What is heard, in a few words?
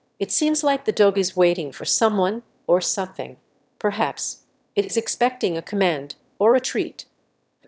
answer, emotion